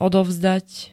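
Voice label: "odovzdať", Slovak; povinne dať, odviesť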